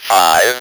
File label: "five", Speak & Spell